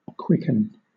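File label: "quicken" English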